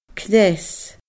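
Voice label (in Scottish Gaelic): "cneas", Scottish Gaelic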